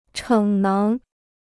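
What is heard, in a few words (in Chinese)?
"逞能" Chinese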